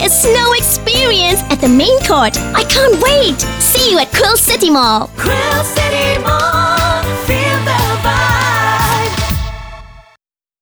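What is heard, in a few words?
Christmas English kid music; QUILL CITY MALL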